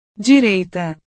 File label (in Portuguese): "direita", portugues